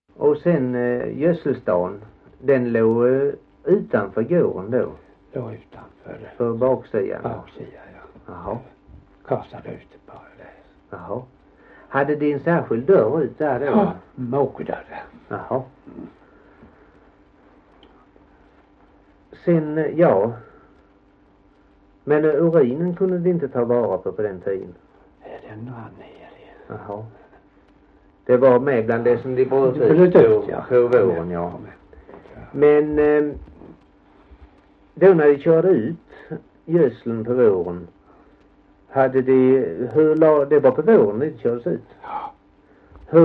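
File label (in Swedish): Gällared Halland, Svenska sagor, dialekter; Folkminnen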